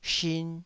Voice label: "shin2", taiwanese Syllables

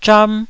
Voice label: "zham1", taiwanese

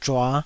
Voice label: "zhua5", taiwanese

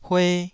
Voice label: "hue2", taiwanese syllable

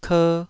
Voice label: "ker2", taiwanese syllables

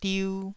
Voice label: "diu2", Taiwanese

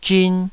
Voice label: "zhin2", taiwanese

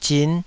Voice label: "zin5", Taiwanese syllable

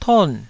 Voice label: "ton4", taiwanese Syllables

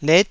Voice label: "let9", Taiwanese syllable

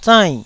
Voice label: "zainn4", taiwanese Syllables

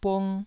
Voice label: "bung1", taiwanese

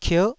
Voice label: "kierh9", Taiwanese

